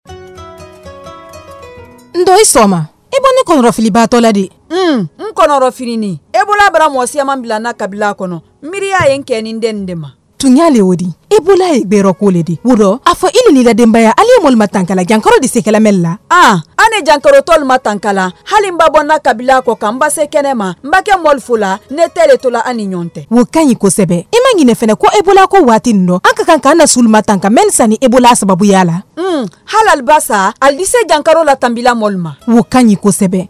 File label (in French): spot ebola pour femmes malinke